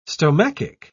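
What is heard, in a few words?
"stomachic", English, British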